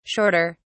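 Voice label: "shorter", British English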